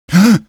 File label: startle, male; Half-Life 2